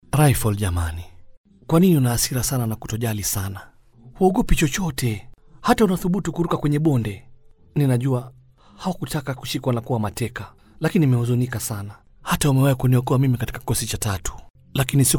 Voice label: swahili voiceover locutor kenya; locutor Swahili de Kenia